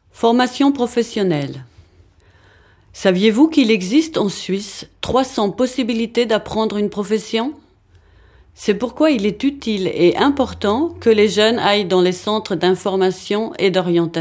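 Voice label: French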